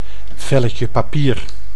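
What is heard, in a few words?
"velletje papier", Dutch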